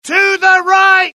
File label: alert, UK; mohaa; dialogue